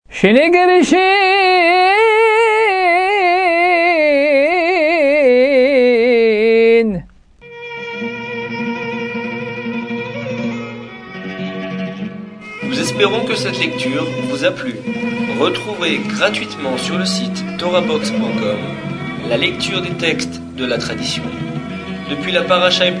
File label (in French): apprentissage taamim selon le rite constantinois